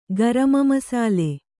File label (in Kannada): "ಗರಮಮಸಾಲೆ", Kannada